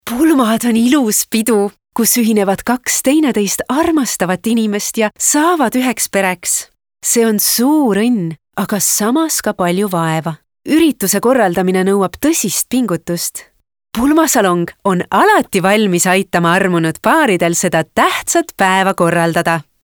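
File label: Estonian Female Inspiration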